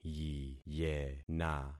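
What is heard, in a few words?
"yiyena", concatenated syllables; Affixing experiment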